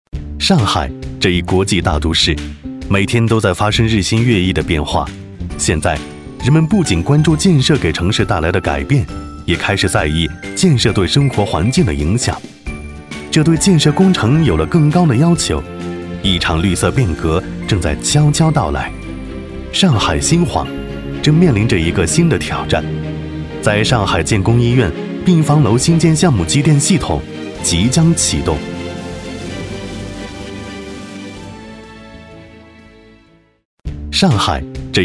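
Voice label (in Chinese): 国语 男声 专题片 大气浑厚磁性 沉稳; 上海建工; 洋气、明快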